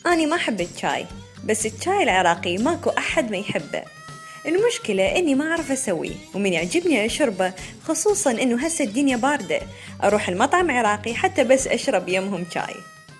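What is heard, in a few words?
Arabic Iraqi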